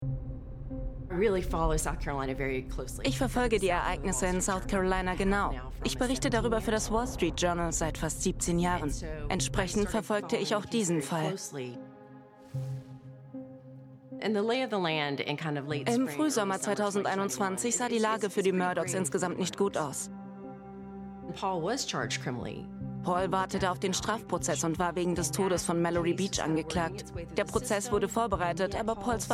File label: Voice Over; Netflix